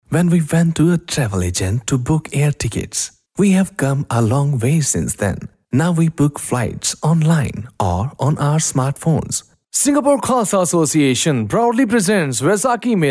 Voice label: male hindi voice over talent english

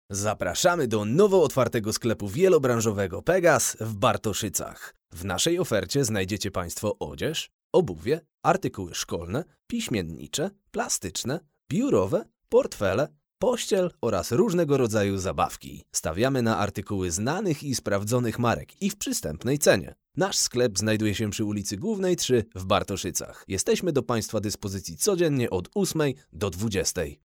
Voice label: male polish voice over talent sales

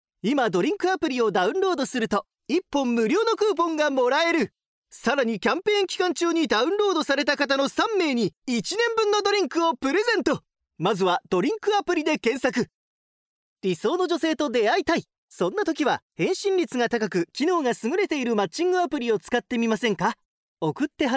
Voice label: male japanese voice over talent sales

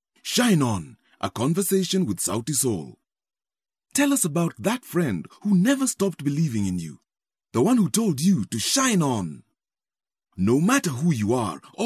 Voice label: male african voice over upbeat, african english

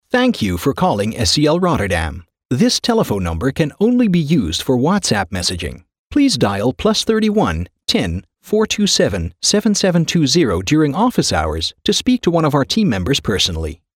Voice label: male canadian voice over upbeat

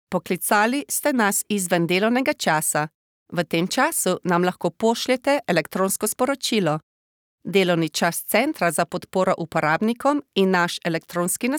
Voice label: female slovenian voice over narrative

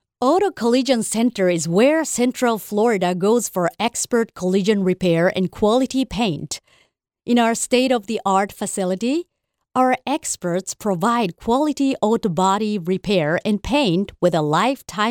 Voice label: female japanese voice over english

